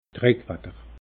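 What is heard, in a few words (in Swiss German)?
"drack watter", Alsatian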